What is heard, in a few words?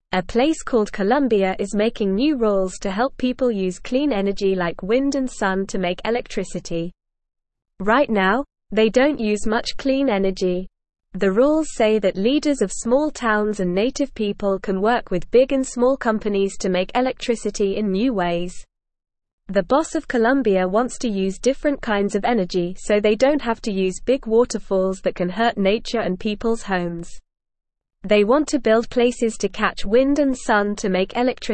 English Newsroom Beginner NORMAL Reading